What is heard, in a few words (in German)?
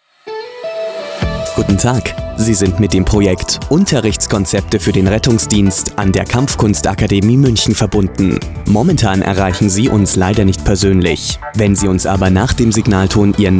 Ansage; Kampfkunstakademie